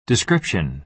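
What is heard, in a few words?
"description", American English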